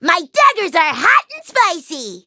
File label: menu tap eng; chili pepper